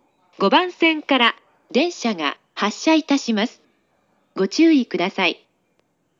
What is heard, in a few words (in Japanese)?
kawagoe housou